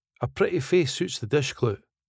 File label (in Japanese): A pretty face suits the dish cloot スコットランド英語